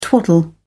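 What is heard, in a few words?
"twaddle", English, British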